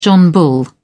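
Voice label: "john bull", British English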